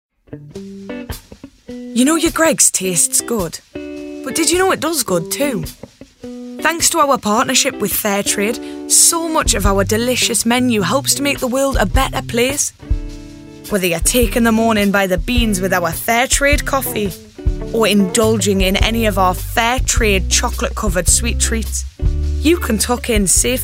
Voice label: Commercial; GREGS

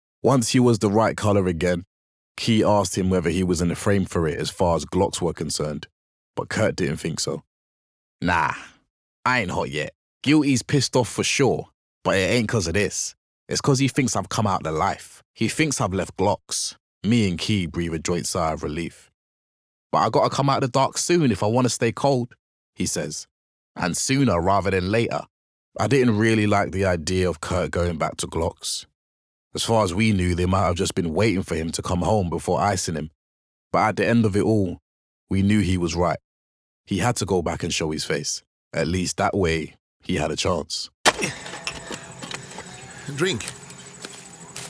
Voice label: audiobook

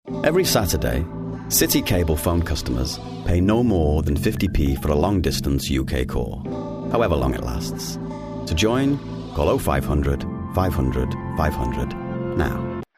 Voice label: STRAIGHT INFORMATIVE LOW KEY; CITY CABLE

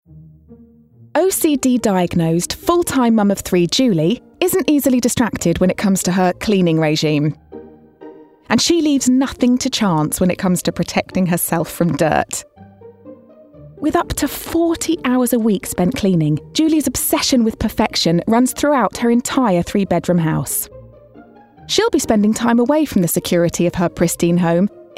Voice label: DOCUMENTARY; OCD Cleaners

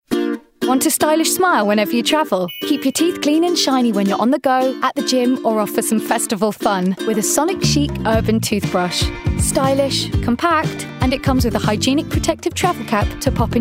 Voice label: COMMERCIAL Toothbrush; Sonic Chic Toothbrush

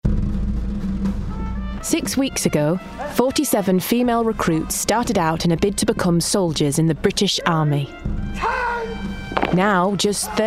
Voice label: DOCUMENTARY; BritishArmyGirls

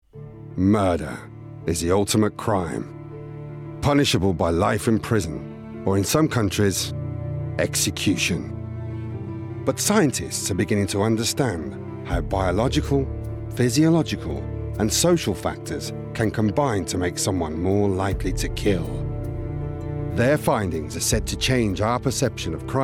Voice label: doco

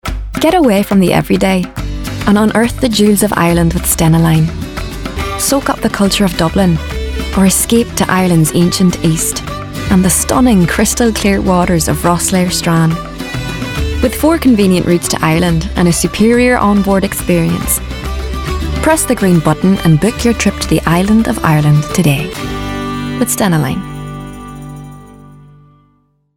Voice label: Northern Irish; Stena Line; Reel

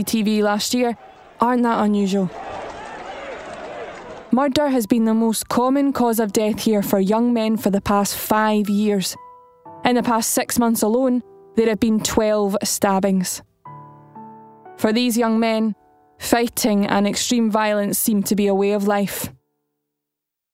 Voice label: DOCUMENTARY Glasgow Gangs

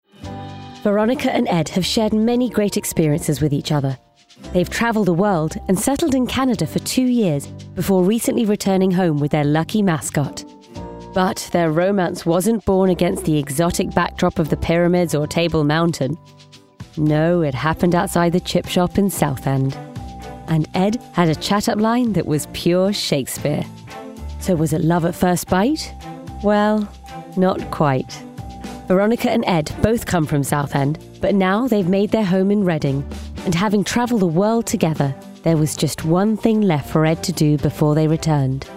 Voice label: DOCUMENTARY; Dont Tell the Bride

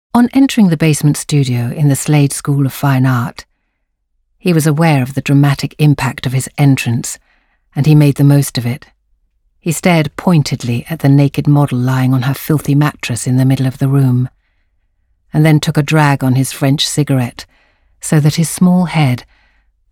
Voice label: Narration

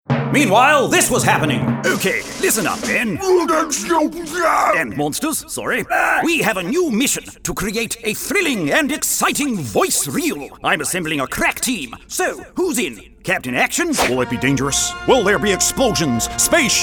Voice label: Character

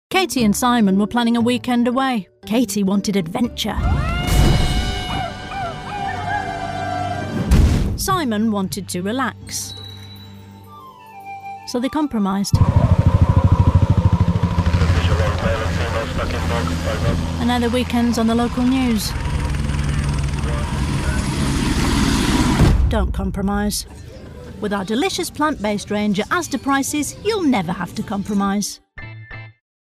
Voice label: Commercial; ASDA

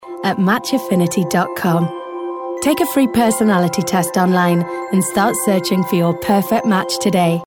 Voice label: commercial; Match

